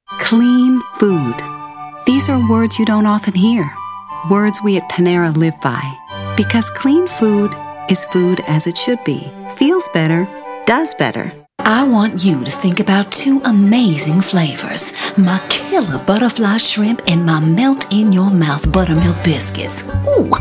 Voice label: COMMERCIAL VOICE OVER